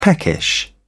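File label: "peckish", British English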